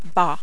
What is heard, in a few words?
"bas", French